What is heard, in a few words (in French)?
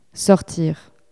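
"sortir", French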